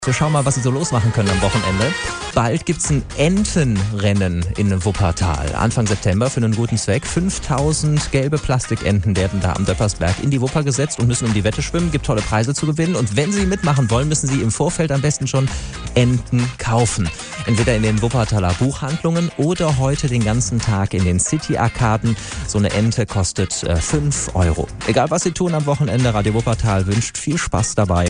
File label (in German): Radio Entenrennen Hinweis Enten; Radio Wuppertal